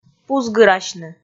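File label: "пузгырасьны", Komi, female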